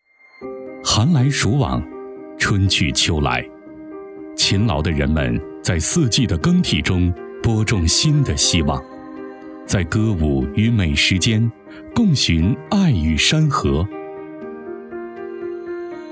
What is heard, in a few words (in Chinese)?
男 纪录片 舒缓; 花开河州